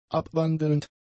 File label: "abwandelnd", german; adjectives